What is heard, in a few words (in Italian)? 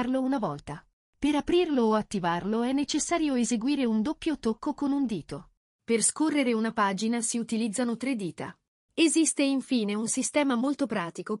voiceover; come attivare voiceover su iphone e ipad